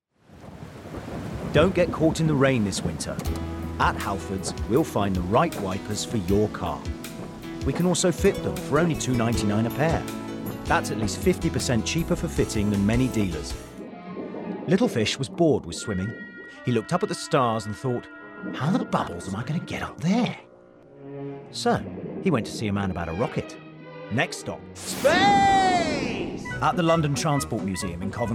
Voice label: Commercial Reel

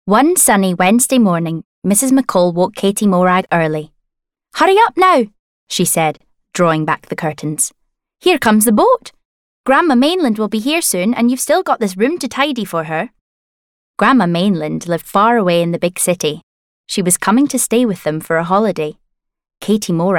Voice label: Narrative Scottish; Katie Morag

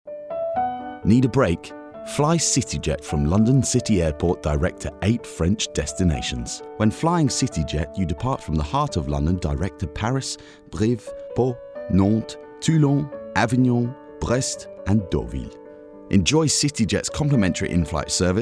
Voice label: Commercial; City Jet

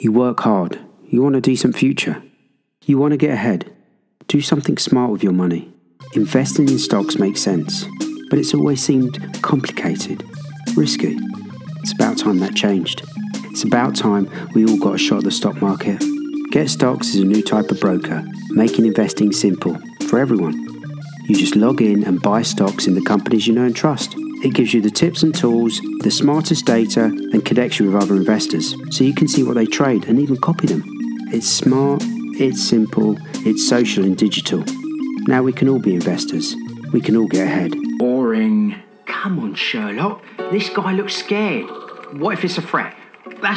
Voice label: VOICEREEL NARRATIVE

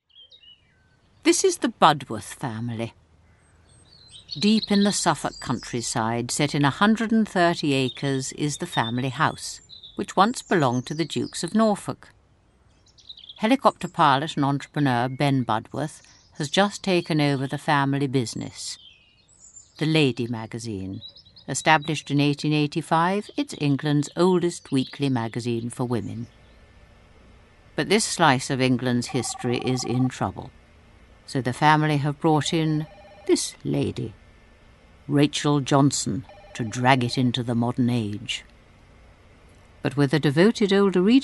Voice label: narration

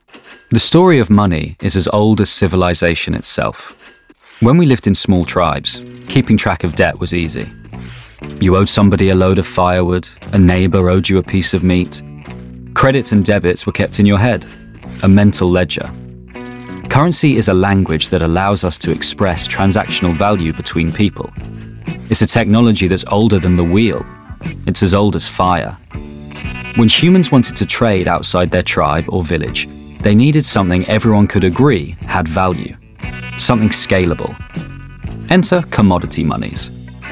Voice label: narration; Bitcoin

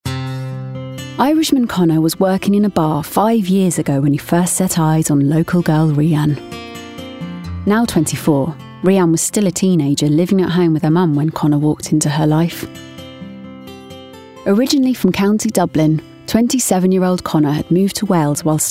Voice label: DOCUMENTARY; Dont Tell the Bride

